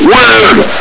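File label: "weird!", male soldier voice; hl2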